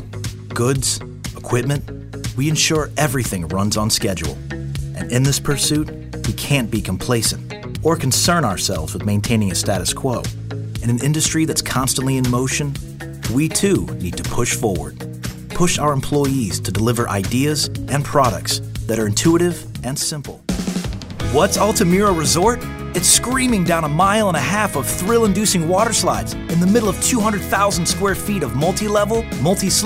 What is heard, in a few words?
Showcase Demo, From high energy car sales to sincere healthcare adds